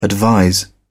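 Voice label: "advise", British English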